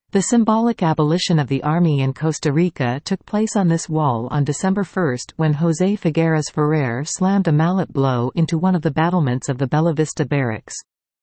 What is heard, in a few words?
abolicion ingles; exhibicion jardin